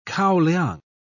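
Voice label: "kaoliang", British English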